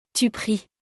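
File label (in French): "tu pries", French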